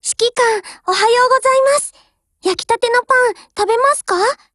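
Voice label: HELLO, Japanese; GF Alarm; m45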